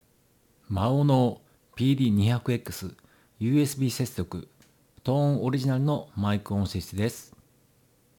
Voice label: maono pd200xs original